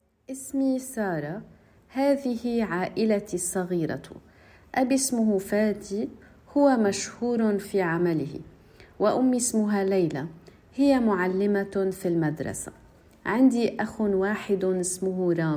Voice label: sarahs family arabic story level a1 msa